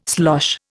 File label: "slosh", Indian